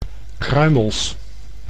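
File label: "kruimels", Dutch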